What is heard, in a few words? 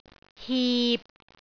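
"hip6", Cantonese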